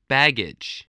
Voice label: "baggage", english

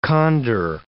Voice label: "condor", English

